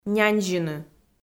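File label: "няньзьыны", Komi, female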